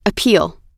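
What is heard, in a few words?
"appeal", US English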